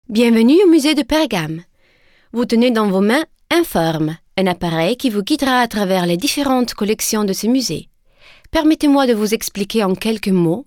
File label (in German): Museumsführer Französisch